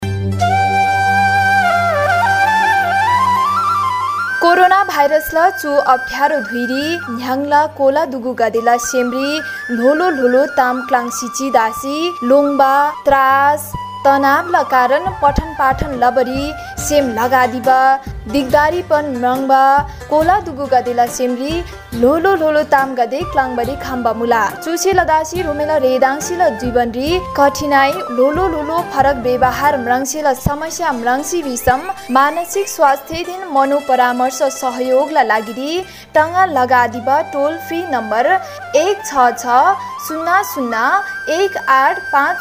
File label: Tamang PSA